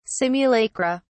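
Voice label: "simulacra", British English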